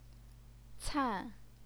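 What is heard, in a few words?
"can", Mandarin Chinese, fourth tone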